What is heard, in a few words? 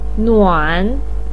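"nuan3", Mandarin pinyin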